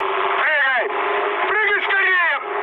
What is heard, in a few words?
bailout, mood high, speech ru; War Thunder